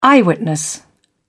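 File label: "eyewitness", British English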